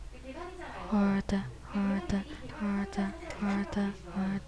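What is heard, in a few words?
"hold", spoken word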